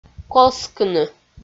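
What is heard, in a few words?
"колскыны", Komi, female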